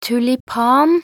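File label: "tulipan", Norwegian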